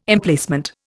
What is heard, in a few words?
"Emplacement", Indian